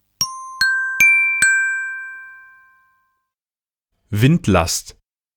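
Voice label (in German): "Windlast", German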